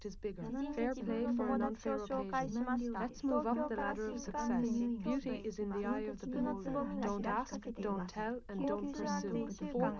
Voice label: female liverec mix